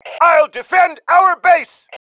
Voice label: defending base, male